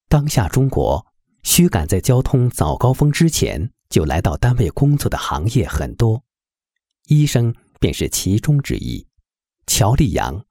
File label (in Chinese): 男, 国语男声配音; 舌尖上的医生样